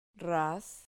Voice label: "raz", Spanish syllable

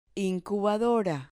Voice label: "incubadora", Spanish

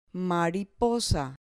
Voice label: "mariposa", Spanish

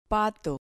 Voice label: "pato", Spanish

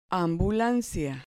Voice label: "ambulancia", Spanish

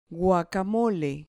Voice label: "guacamole", Spanish

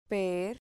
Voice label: "per", Spanish syllable

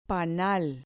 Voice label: "panal", Spanish

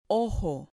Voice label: "ojo", Spanish

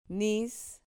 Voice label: "nis", Spanish syllable

